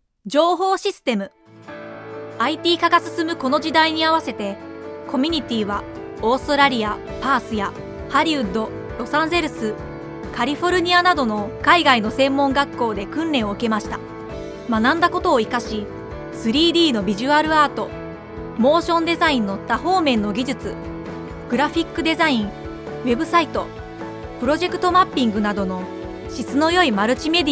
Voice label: Voice Over

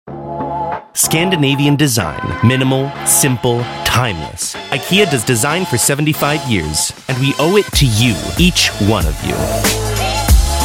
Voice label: man american

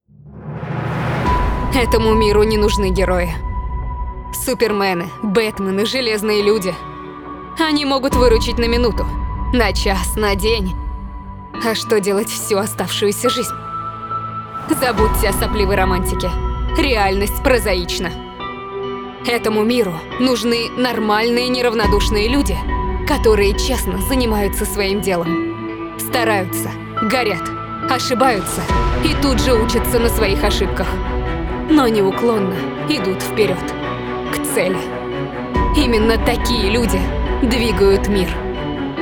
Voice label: promo

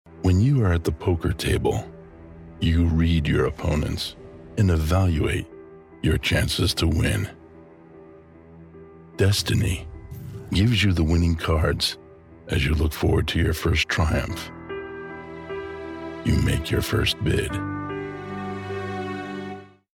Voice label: Male American

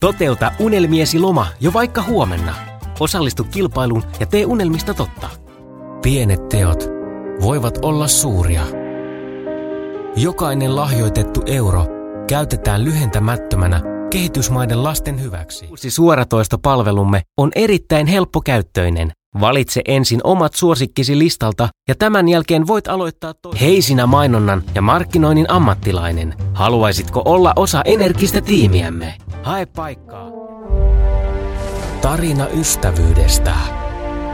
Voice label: finnish

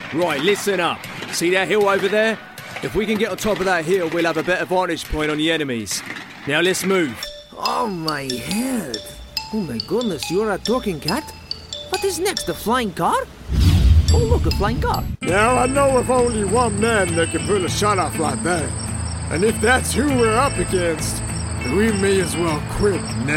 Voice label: man british

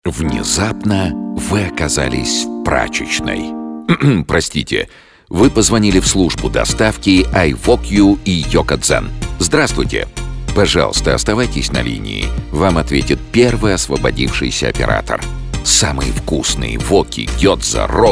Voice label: IVR short

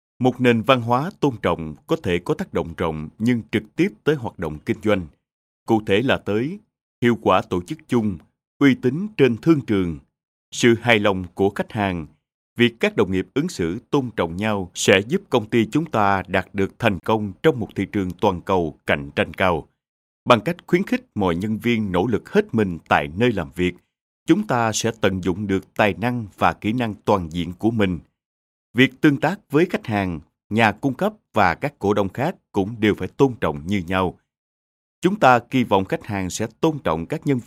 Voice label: male vietnam

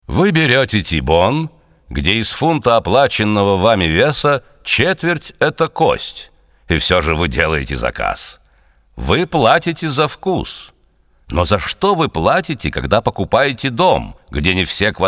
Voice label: RADIO

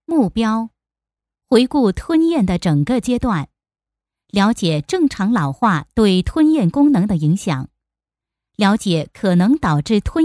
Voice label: Mandarin Female

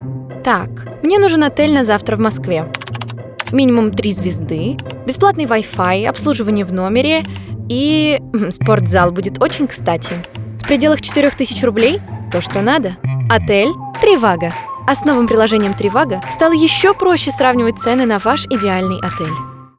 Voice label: radio

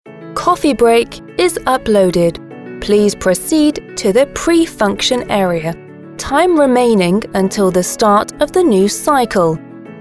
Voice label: woman british

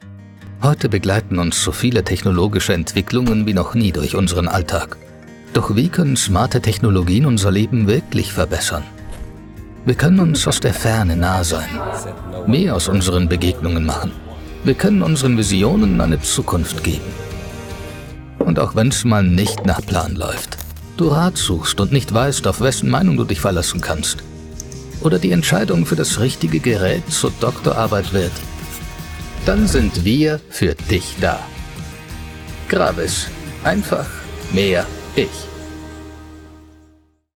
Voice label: Male German

